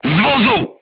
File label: voice message leave vehicle, polish